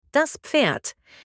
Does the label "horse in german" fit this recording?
Yes